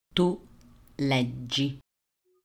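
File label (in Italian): "leggi", Italian; verbi; presente; grammatica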